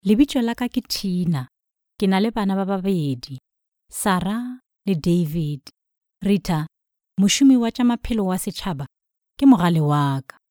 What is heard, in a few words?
female sepedi voice over character, sotho northern